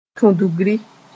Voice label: "tudgri", moore